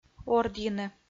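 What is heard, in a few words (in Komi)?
"ордйыны", Komi, female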